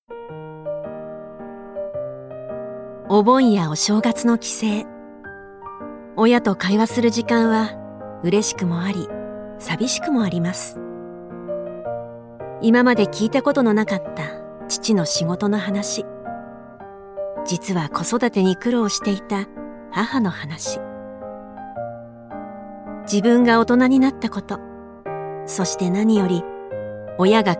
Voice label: corporate calm